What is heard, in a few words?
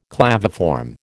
"claviform", American